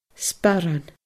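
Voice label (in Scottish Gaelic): "sparran", Scottish Gaelic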